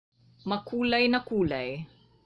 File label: Tagalog